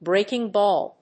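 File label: "BREAKING BALL", English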